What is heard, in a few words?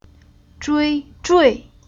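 "Zhui", chinese syllable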